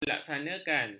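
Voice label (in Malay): "melaksanakan", Malay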